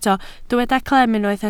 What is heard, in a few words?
"clem", Welsh